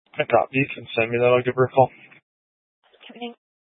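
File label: Police Dispatch, Scanner audio; Police 1 Dispatch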